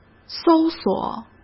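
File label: "搜索", Mandarin Chinese; hsk; chinese-flashcards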